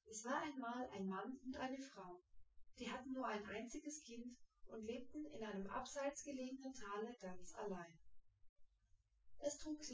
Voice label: female synthconv 250ms 1m; SiSEC08; SiSEC underdetermined